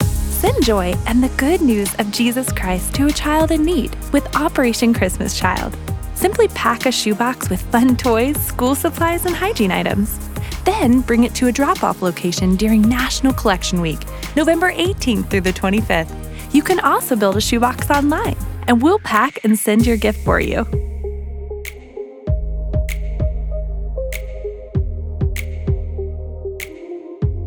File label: PSA; NCW Dates; Station Tag